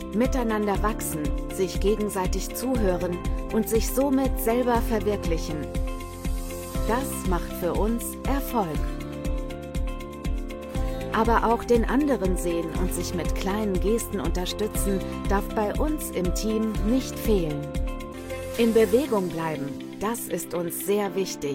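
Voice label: german corporate videos, female; professional German voiceover artist and voice actor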